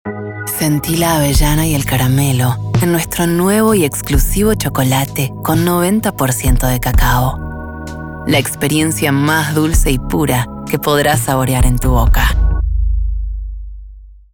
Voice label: Sensual Sophisticated; Sensual Sofisticado